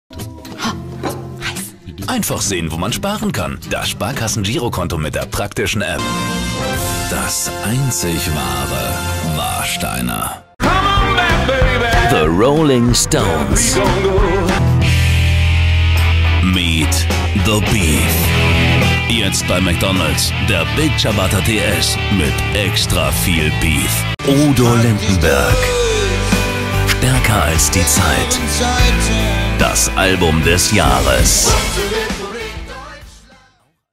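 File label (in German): Werbung Mix; Fremdtake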